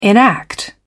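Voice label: "enact", British English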